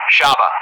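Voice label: "Shaba", English; Callsign; DCS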